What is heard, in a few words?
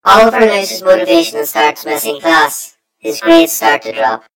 story voiceover; yumpod